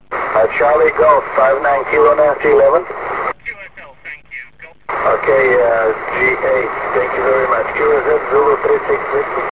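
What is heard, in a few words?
amateur radio contact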